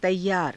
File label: "taiyaara", hindi